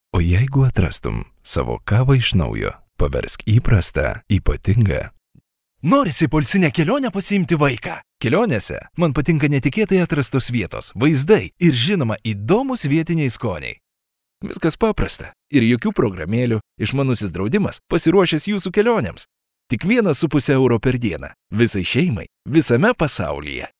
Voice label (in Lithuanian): reklama